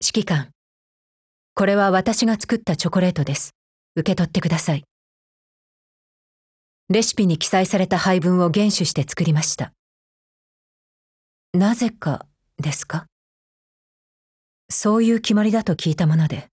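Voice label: VALENTINE, Japanese; AK15 Mod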